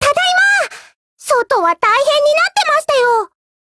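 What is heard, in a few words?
OPERATION OVER Japanese, Voice; GF Alarm; BrenTen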